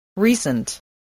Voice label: "recent", english

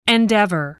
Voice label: "endeavor", spelling

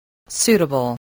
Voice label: "suitable", spelling

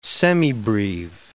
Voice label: "semibreve", English

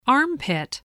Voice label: "armpit", English

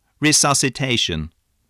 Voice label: "resuscitation", English

